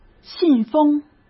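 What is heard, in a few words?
"信封", Mandarin Chinese; chinese-flashcards; hsk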